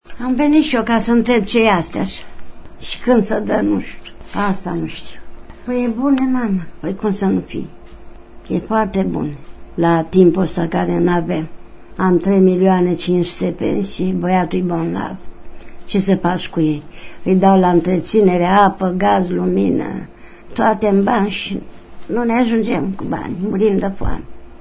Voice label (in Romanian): audio batrana; Derută totală în rândul săracilor care primesc ajutoare alimentare în pragul iernii!